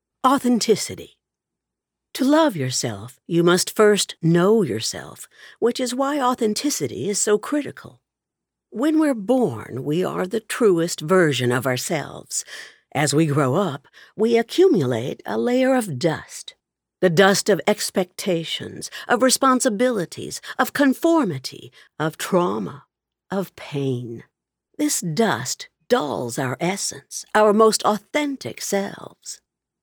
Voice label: Self Help 2nd person